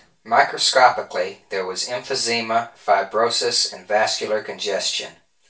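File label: male reverberant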